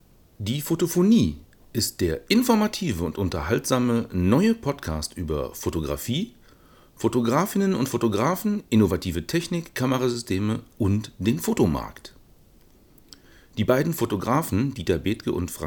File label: Zoom SGH-6; zum videos drehen mit der dslr spiegellosen